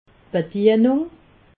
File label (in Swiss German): "bedienung", alsace